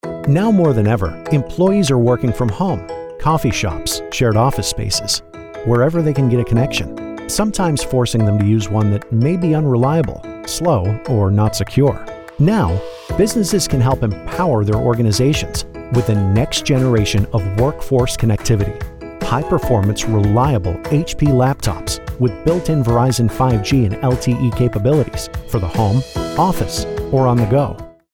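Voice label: Explainer Connected Laptops, American Male Voice; explainer video voiceover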